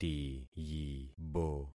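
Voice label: "tiyibo", concatenated syllables; Affixing experiment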